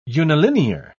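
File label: "unilinear", British English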